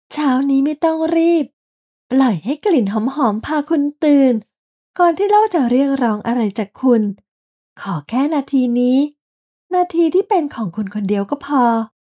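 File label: Natural Lifestyle, Natural Speak, Female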